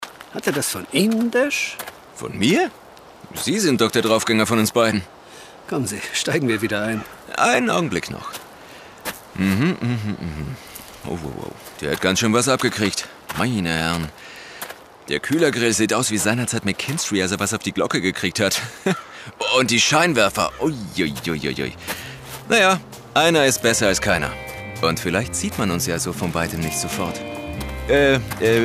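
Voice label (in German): Hörspiel; inspector lestrade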